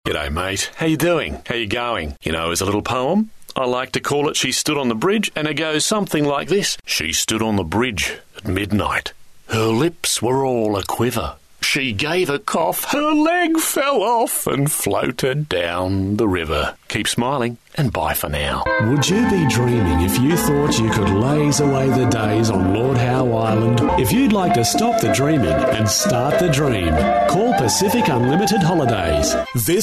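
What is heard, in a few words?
English Australian M Commercial; accent of australia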